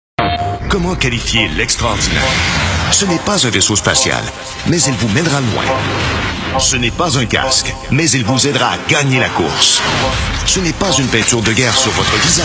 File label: french canadian video games